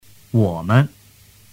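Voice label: "women", Mandarin Chinese; tu moi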